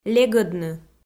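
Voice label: "легӧдны", Komi, female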